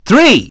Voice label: dj, voice; Nintendo; July 2020 Gigaleak; VSLAP VOICE